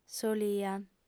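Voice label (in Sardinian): "s'olia", female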